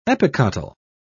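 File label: "epicotyl", British English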